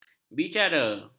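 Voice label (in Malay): "bicara", Malay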